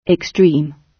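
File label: "extreme", British English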